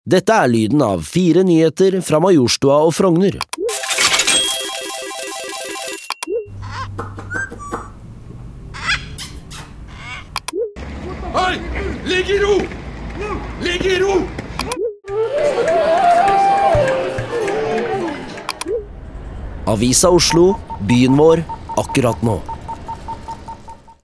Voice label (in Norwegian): nyheter; Frogner majorstuen